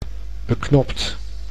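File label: "beknopt", Dutch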